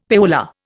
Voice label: "payola", Indian; Dictionary

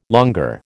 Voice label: "longueur", American

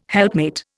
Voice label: "helpmate", Indian

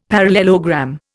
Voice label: "parallelogram", Indian; Dictionary

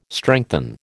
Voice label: "strengthen", American

